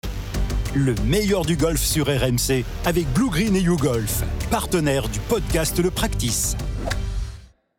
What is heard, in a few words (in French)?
RADIO